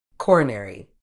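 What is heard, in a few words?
"coronary", British English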